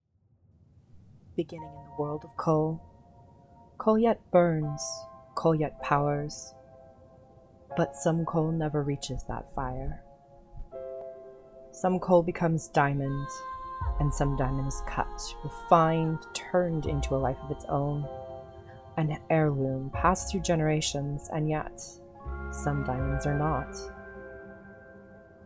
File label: Voice Over